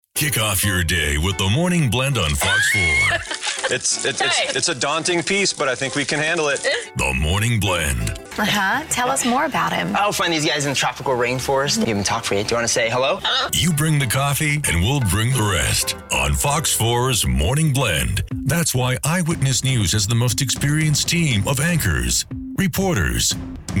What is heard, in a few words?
Tv Local Imaging